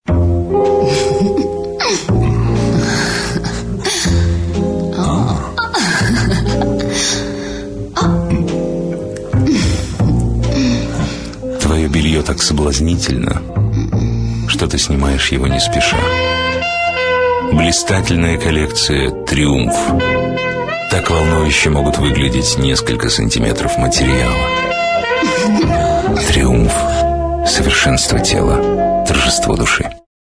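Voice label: Russian, male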